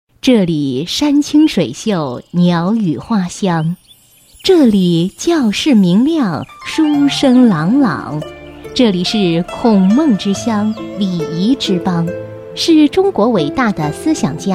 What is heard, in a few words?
Mandarin female